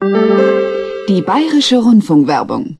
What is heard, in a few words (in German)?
rundfunkwerbung